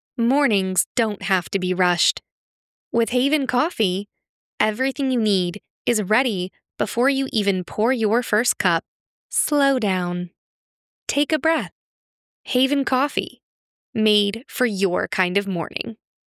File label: Commercial Conversational Soft Sell; Voice123